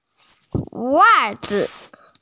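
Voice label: "wa4zi5" Mandarin Chinese, female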